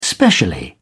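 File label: "specially", British English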